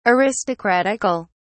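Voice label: "aristocratical", British English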